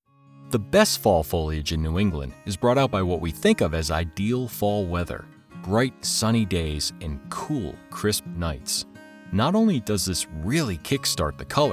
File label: Tour Guide